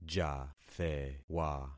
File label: "jathewa", concat syllables; Affixing experiment